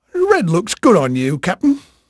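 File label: English male, voice; Pirates Of The Caribbean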